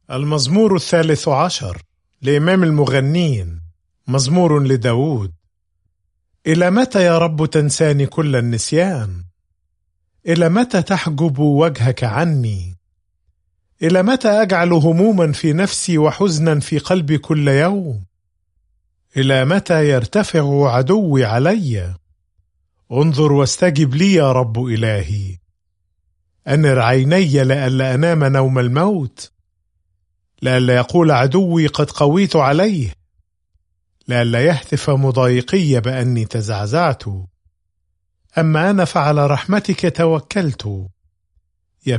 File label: Psalm, arabic bible; psalms